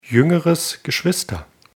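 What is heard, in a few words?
"jüngeres geschwister", German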